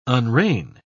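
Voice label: "unrein", British English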